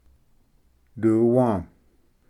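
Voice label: "duwa", Cherokee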